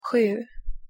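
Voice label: "sju", Swedish pronunciation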